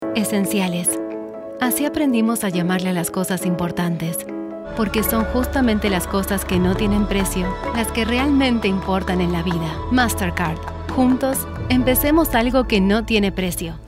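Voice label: Spanish, female, neutral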